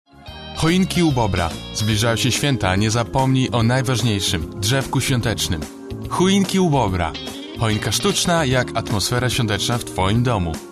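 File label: Polish, male, commercial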